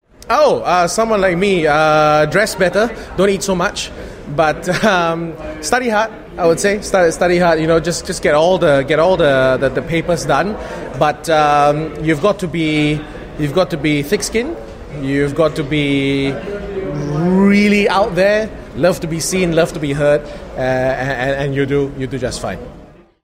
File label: Asian English Interview